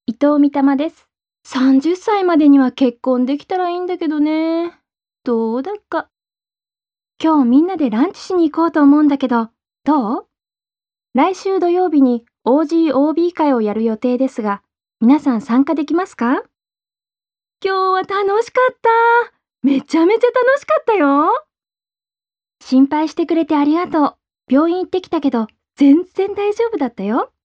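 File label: Japanese, female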